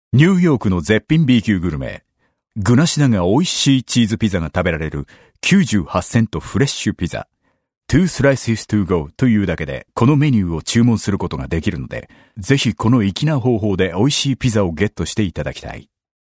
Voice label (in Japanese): narration; m power funiki